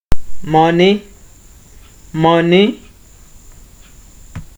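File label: "money", Nigerian Pidgin, male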